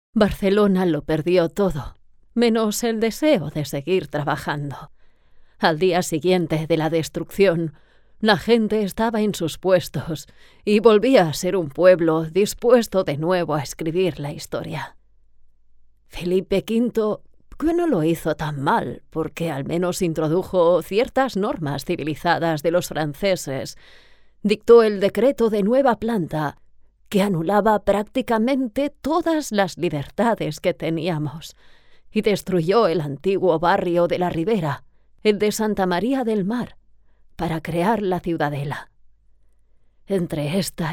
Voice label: CHARACTERISATION, SPANISH FEMALE